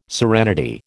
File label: "serenity", American